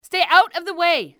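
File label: "stay out", english female; XBOX; civ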